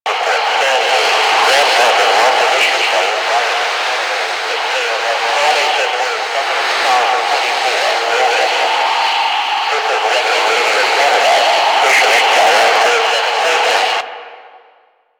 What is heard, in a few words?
Ambient Propaganda Loop